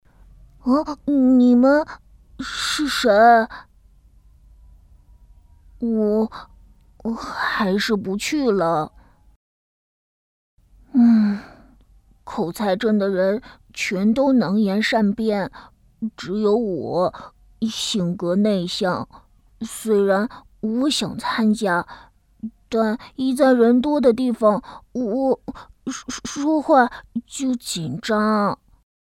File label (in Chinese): 男童不善言辞